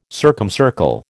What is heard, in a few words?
"circumcircle", American